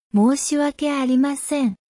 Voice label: sorry japanese